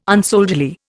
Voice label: "unsoldierly", Indian